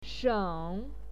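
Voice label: "sheng", Mandarin Chinese, third tone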